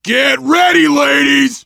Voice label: incoming, voice, biker; Left 4 Dead 2; left4dead2 dlc3; survivor

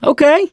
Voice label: "yes", survivor voice, mechanic

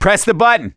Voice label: misc directional, voice; gambler

